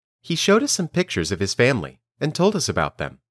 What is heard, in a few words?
Dictation